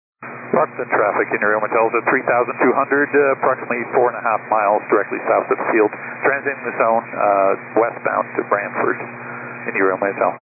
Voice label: 122 725MHz, scanner radio